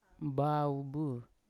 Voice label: "mbaobu" wet, Yawa

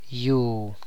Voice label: "land", tlacochahuaya

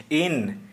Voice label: Santali heart

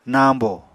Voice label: "nañbò", Apatani

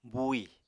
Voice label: "buuì", Apatani

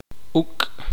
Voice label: "piojo", Tektiteko

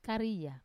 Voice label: "karia", Wamesa; high tide

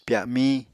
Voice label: "pyamiñ", apatani

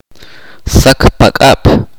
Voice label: "ladino", chorti

